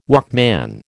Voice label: "Walkman", American